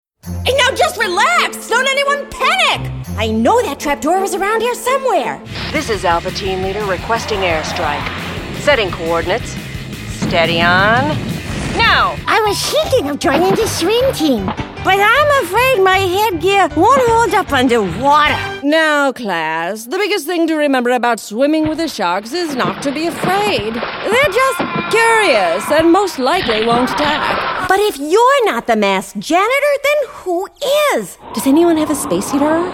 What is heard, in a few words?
Animation, vo